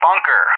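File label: "bunker", English; JTAC; DCS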